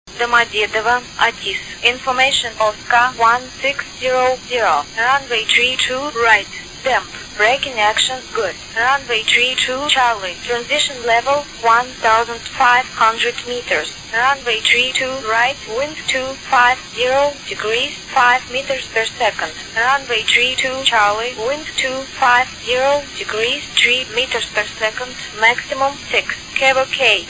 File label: atis 125.125mhz; atis1 dmd